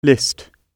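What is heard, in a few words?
"list", British English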